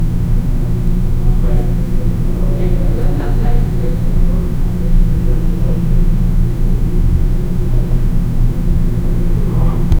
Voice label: soft low level college cl, classroom

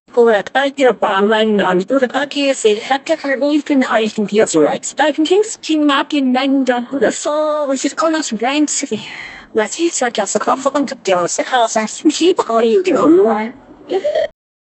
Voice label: in english say sorry this, apology

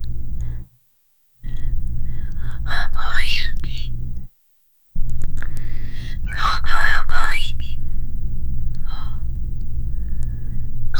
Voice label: women whispers

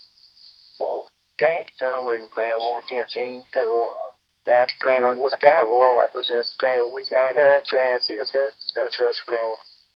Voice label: announcer faint voice

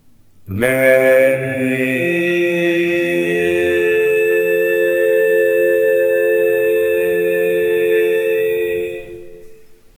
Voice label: male voice speech at sans, meditative

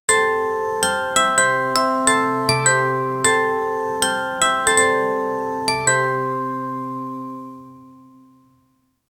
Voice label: welcome for a kids app

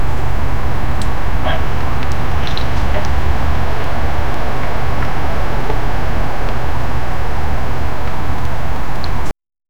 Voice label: a weary mans hushed voice; human vocal

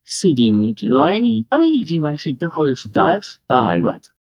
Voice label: incomprehensible voice of

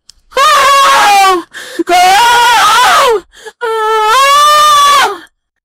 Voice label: the persons phrase is wha, scream

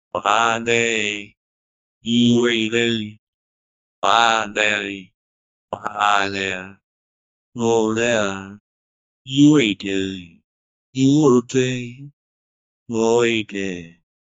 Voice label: young rapper speaks, articulation

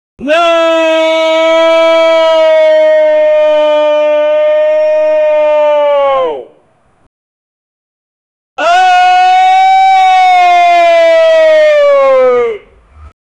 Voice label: stadium announcer voice

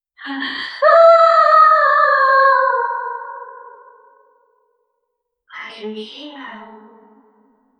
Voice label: woman silently calling fo, call for help

